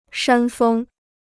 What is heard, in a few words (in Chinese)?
"山峰", Chinese